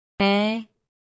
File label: Phoneme Female; Commonscript; Lynguistykon